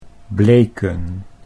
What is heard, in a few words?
"bleken", Dutch